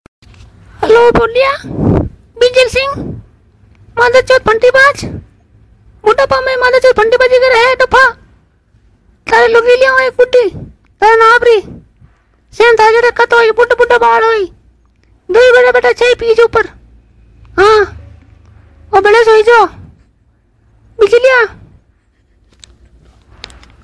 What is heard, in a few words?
Comedy Grandma